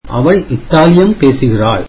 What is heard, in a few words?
tamil she speaks Italian